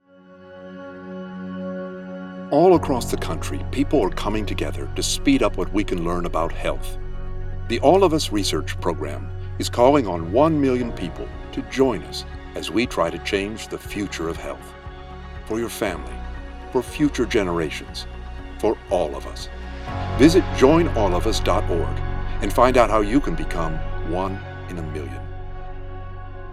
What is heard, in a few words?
psa radio spot english